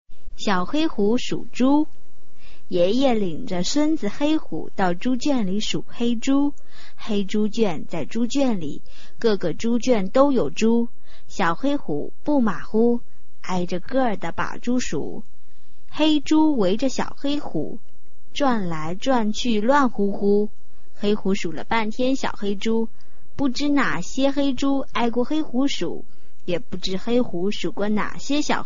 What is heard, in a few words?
chinese tongue twister